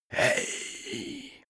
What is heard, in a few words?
male creepy hey